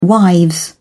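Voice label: "wives", English, British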